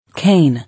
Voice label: "Cane", English